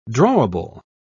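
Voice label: "drawable", British English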